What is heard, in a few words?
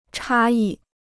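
"差异", Chinese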